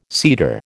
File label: "seeder", American